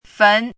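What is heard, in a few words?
"fén", Mandarin Chinese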